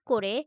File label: Female